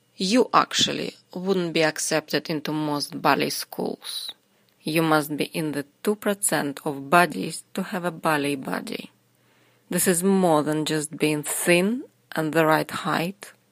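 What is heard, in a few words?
Russian English, female, advert; accent of russia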